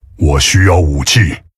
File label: Male Tauren ERROR NEED WEAPON, zh